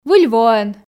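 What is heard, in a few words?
"выль воӧн", Komi, female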